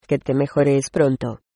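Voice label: get well soon, Spanish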